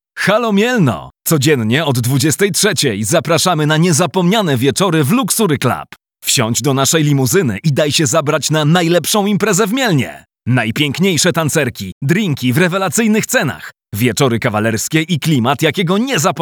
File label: luxury club spot